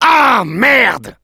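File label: negative vocalization, French; "Ah merde !"; Demoman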